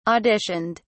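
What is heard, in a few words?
"auditioned", British English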